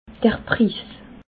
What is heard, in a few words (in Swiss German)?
"pris", alsace